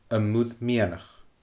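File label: "Am Muir Meadhanach", Scottish Gaelic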